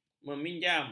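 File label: "meminjam", Malay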